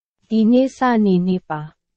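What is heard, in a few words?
Burmese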